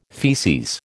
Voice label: "feces", American